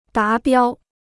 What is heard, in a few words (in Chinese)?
"达标", Chinese